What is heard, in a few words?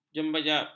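"jejambat", Malay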